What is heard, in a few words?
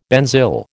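"benzyl", American English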